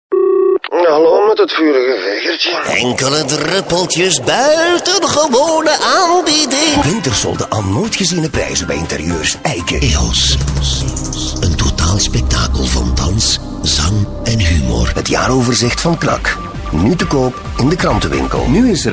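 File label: flemish male commercial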